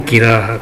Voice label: "gera", minamiyamagata